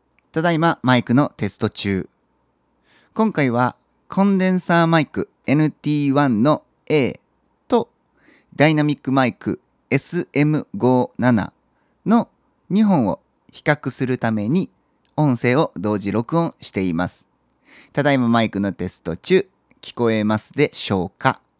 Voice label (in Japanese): SM57 ナレーション